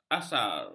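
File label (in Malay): "asar", Malay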